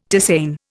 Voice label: "tisane", Indian